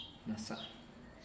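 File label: "nasa'a", zapotec; feliz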